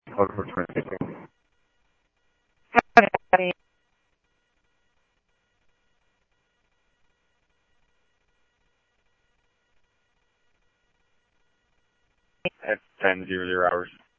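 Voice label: Simulcast police department, scanner audio trunk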